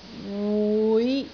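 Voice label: "wui6", Cantonese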